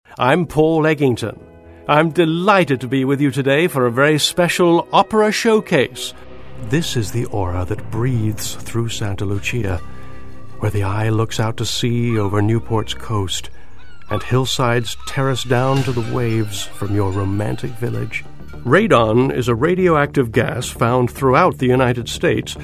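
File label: narration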